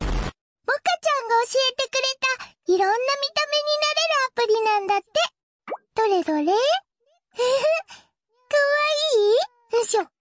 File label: anime cute voice